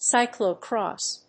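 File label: "Cyclocross", English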